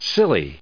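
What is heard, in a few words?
"silly", US English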